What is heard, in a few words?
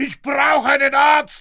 fear; mohaa